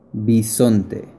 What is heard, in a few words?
Bison spanish